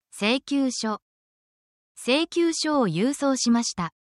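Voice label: Japanese word, essential Japanese phrases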